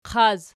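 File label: "qaz" nominative, Archi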